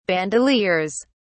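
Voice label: "bandoleers", British English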